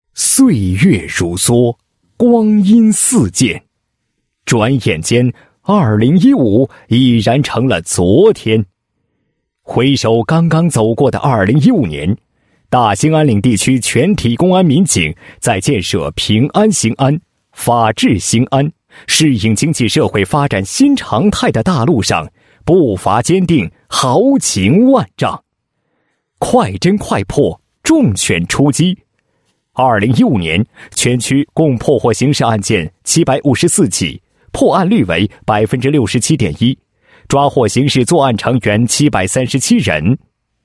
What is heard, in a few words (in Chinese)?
男 专题片脚本; 公安; 人民报告专题片脚本